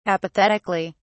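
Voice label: "apathetically", British English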